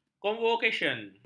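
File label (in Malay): "konvokesyen", Malay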